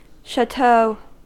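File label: "chateau", American English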